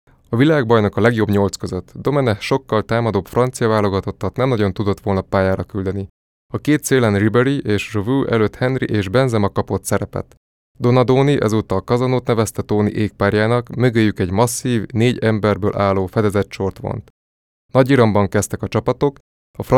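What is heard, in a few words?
hungarian male narration; voice demos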